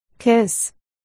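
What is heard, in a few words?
"cos", spoken word, articulation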